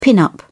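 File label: "pin-up", British English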